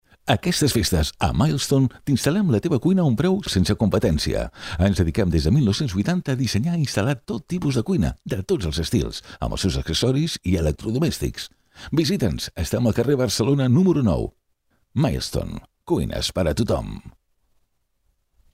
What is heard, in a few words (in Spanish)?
comercial